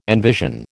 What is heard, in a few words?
"envision", American